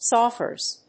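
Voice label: "sawhorse", English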